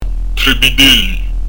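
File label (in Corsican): "vitelli", Corsican; trè bid'élli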